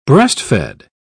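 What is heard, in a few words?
"breast fed", British English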